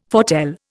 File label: "foretell", Indian